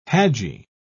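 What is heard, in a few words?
"haji", British English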